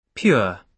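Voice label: "pure", British English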